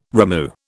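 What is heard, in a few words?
"rimu", British English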